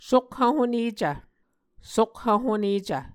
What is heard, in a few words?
"sokhv-honecv", Muscogee